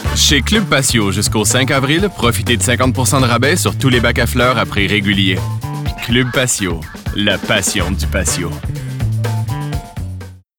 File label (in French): annonceur, Publicité; clubpatio